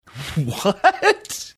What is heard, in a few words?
laughing what